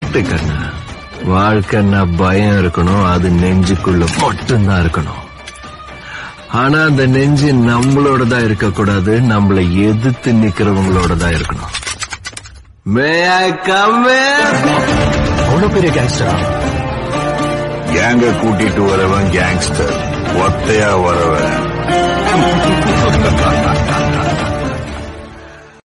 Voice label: Dialogue Tamil South Indian; KGF